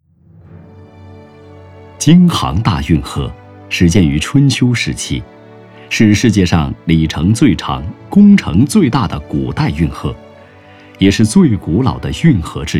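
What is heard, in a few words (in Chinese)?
专题（大气、豪迈）; 京杭大运河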